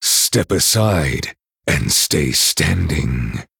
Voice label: Male Night Elf Threaten

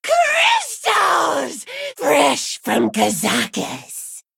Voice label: Female Harpy Play

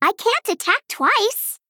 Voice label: Female Gnome ERROR

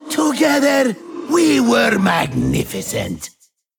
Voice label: Male Ethereal Evil Fight Hero Emote Well Played

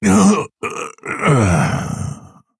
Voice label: Male Orc Death; Forgotten Shaman

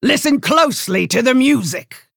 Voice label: Female Half Orc Emote Greetings

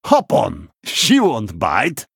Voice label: Male Human Play

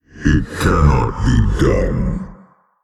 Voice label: Male Old God ERROR GENERIC

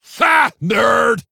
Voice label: Male Orc Attack

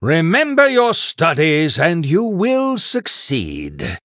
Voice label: Male Human Idle

